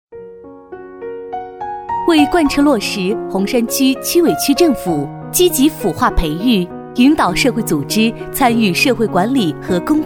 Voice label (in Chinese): 女 政府专题; 洪山区政府; 方言